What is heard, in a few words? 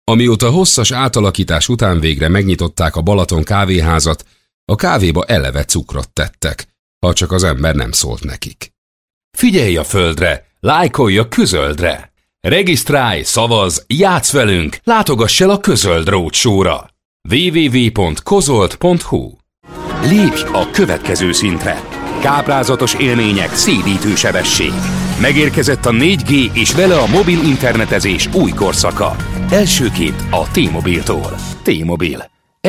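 Hungarian, General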